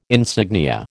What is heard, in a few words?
"insignia", American